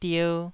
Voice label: "dier2", taiwanese syllable